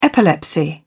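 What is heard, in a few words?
"epilepsy", British English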